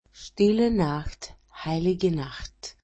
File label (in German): Stille Nacht title reciting; Christmas